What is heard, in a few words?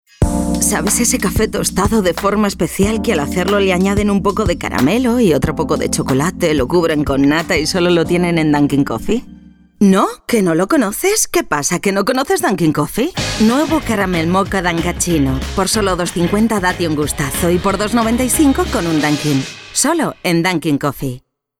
spanish spain female